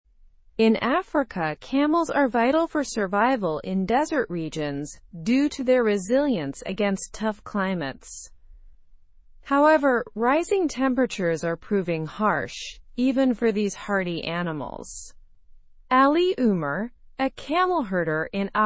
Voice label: english through news upper intermediate; africa s camels struggle with climate change; slow